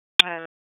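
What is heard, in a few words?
Police Downtown District Dispatch, p25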